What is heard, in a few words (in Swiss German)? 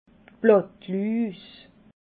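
"blattluus", Alsatian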